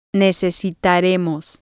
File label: "necesitaremos", spanish